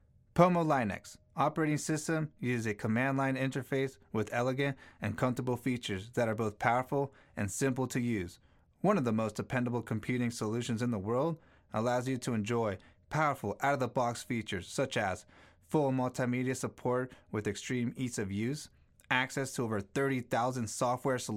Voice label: Voiceover; Pomo Linux; Rode NT1